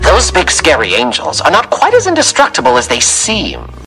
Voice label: announcer; hazbin hotel alastor announcer pack for qol lock